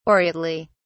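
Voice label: "aureately", British English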